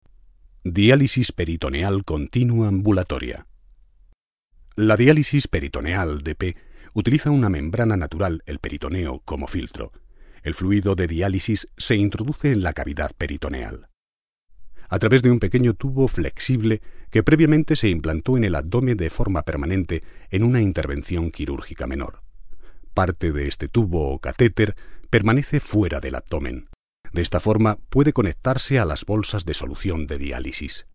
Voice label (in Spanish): profesional